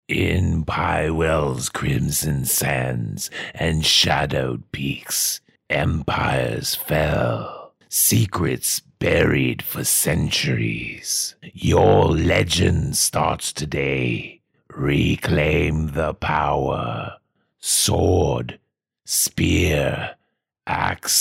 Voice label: Promo; Crimson Desert